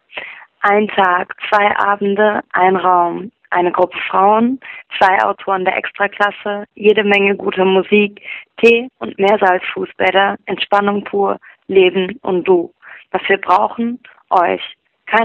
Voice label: Voicemail